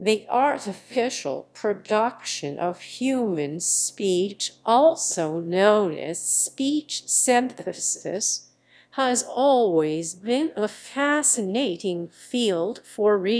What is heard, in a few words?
slow; alpha 0.5